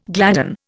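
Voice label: "gladdie", Indian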